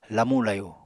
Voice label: "lamu-layu", Apatani

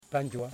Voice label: "Fingernail", Yimas

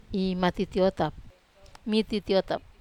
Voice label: "matitiotap", Wamesa

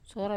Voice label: "sora", Wamesa

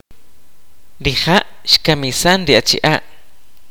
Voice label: "he killed the men", kaqchikel

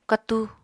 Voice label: "katu", Apatani

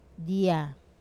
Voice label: "dia", fish, Wamesa

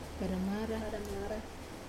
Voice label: "padamara", lamp, Wamesa

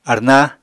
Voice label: "arná", Apatani

